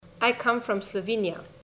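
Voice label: I Come From Slovenia, Slovenian; Virtual Mobility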